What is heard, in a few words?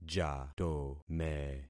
"jatome", concat syllables; Affixing experiment